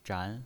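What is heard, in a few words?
"zhan" Mandarin Chinese pinyin voice, second tone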